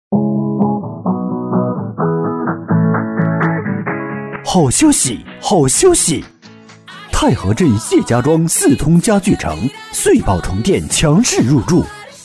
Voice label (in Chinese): 男 促销广告 好消息 家具城 大气有力; 四通家具城; 男2-浑厚大气 大气浑厚